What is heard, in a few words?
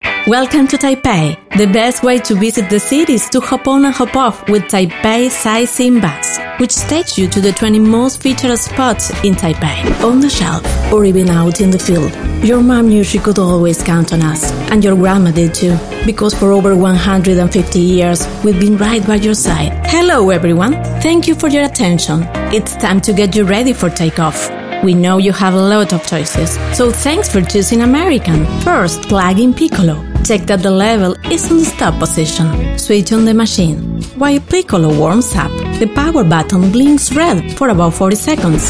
Spanish European English; Spanish European Female